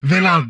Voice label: humanoid male; Stellaris; humanoids